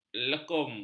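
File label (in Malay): "lekum", Malay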